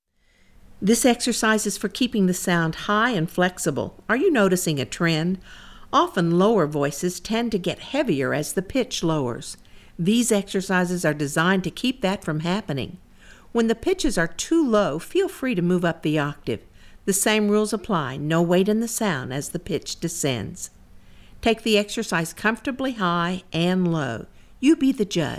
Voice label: Mid Low Range Explanation Ee Eh Ah, warm ups